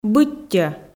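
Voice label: "быттьӧ", Komi, female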